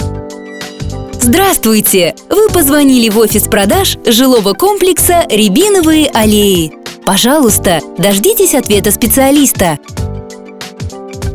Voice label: IVR voice